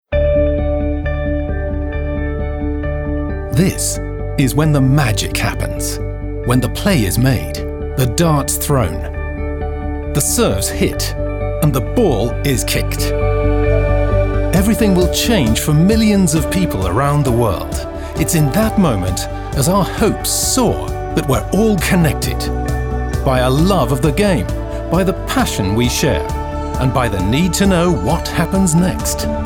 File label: UK Image Film; Sportradar